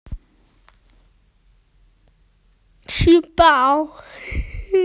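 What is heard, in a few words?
"schoolbag", Mandarin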